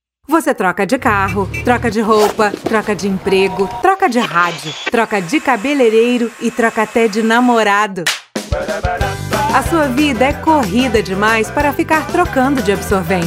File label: Spot; P G Always